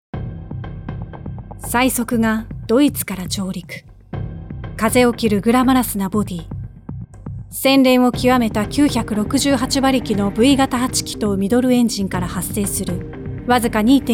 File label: Narration